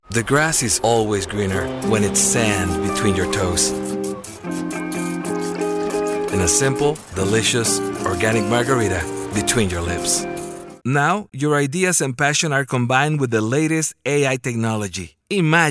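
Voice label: Spanish accent commercial, Voiceover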